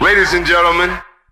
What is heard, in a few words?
ladies and gentlemen announcer